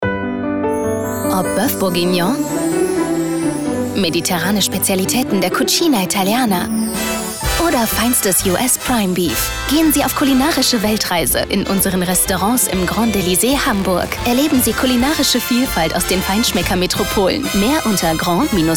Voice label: W Fremdtake, Freundlich

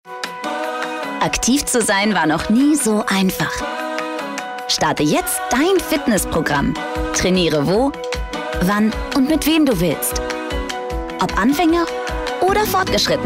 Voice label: Freundlich; Fremdtake

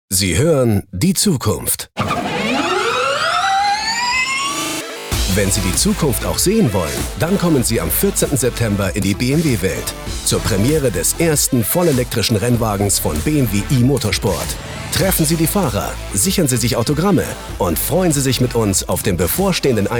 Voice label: Freundlich; BMW

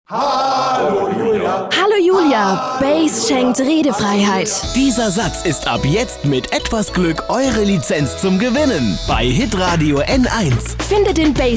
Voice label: Fremdtake Dynamisch

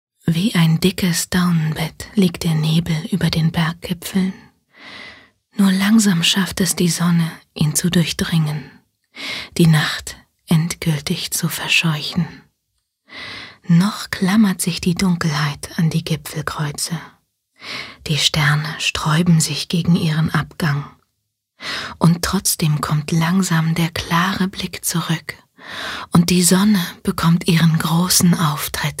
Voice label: Schauspiel Sanft

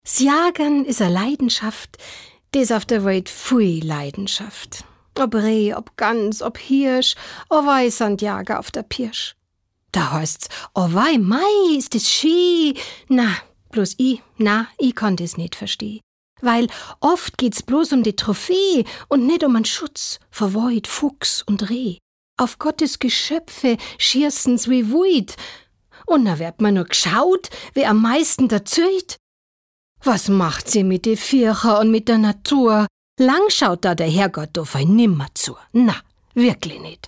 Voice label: Dialekt Bayerisch

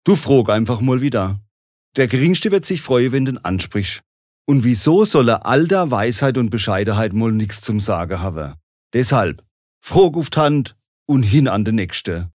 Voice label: Dialekt Badisch

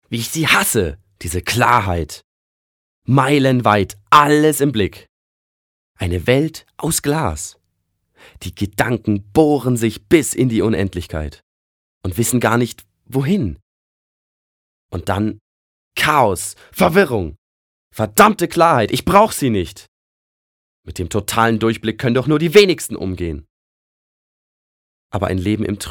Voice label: Schauspiel Aufgeregt

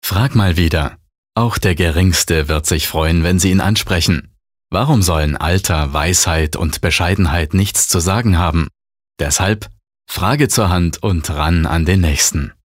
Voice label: Werbung Sanft

